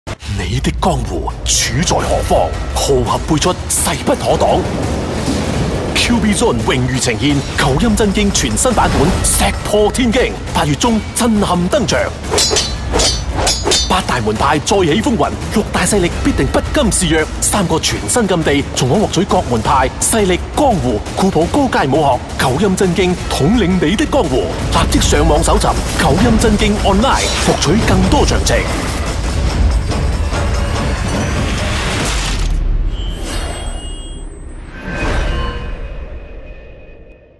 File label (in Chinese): 配音, On Line Game; 九阴真经